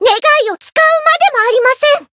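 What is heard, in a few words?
win, Japanese; myungwha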